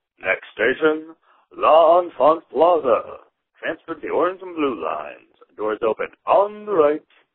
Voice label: metro announcement; the music man

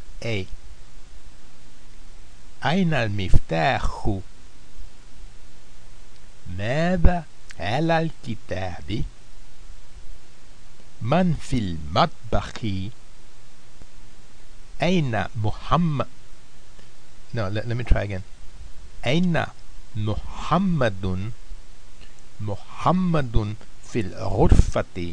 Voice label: arabic

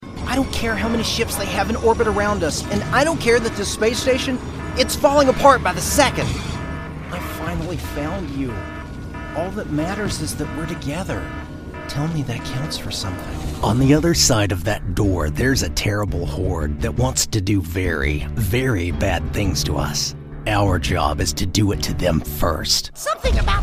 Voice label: character voiceover

